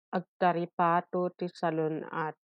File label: Ilocano